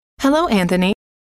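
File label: hello, female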